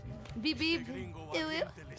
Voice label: beep beep uwu